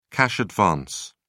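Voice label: "cash advance", British English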